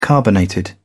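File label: "carbonated", British English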